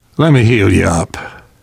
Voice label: heal other, left4dead2 survivor voice namvet; source engine

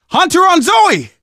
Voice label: hunter pounced, survivor voice; left4dead; manager; Source engine

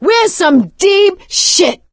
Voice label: reaction negative, survivor voice; source engine; left 4 dead 2; producer

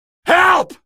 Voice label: alert rollers, male; video games; npc; source engine; episodic; episode 1

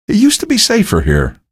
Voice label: remarks, male; video games; npc; source engine; episodic; episode 1

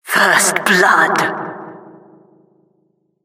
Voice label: announcer first blood

